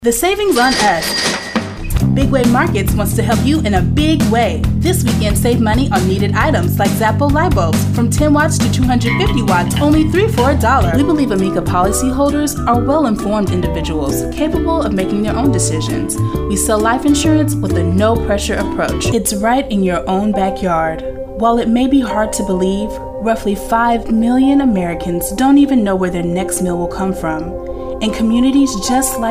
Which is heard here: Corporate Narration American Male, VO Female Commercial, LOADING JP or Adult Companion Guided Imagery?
VO Female Commercial